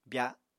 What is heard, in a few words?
"bya", Apatani